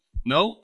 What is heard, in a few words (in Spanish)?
Voice Acting es M